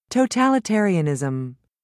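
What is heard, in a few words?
"totalitarianism", English, British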